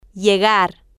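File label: "llegar", female, spanish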